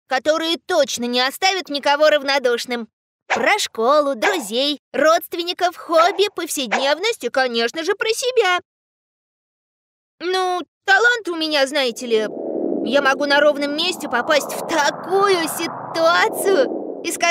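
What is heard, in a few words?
Russian, female, character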